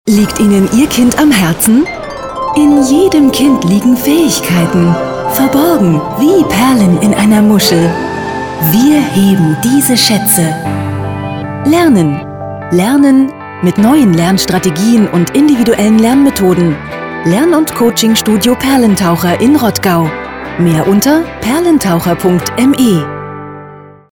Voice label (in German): Lern und Coachingstudio Spot; Perlentaucher